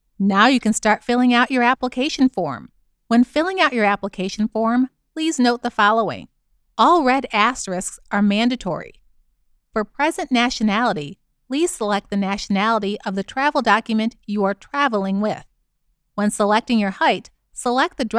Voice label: Presentations Educational Informative Professional